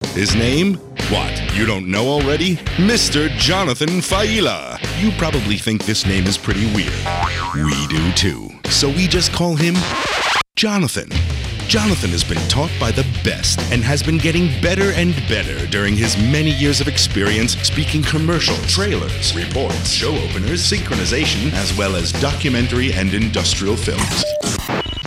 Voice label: commercial